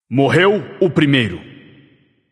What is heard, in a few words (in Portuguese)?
alert notify kill streak firstblood, vox caster portuguese